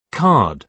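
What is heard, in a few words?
"card" British English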